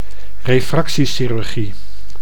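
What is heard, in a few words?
"refractiechirurgie", Dutch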